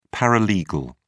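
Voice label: "paralegal", British English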